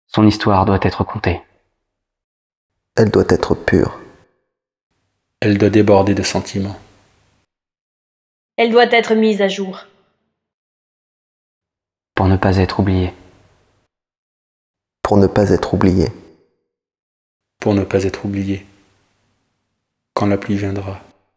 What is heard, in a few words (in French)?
voix denoiser